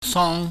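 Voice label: "song", Cantonese, high falling tone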